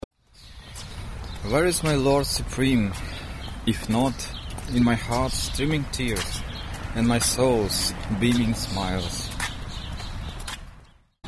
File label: poem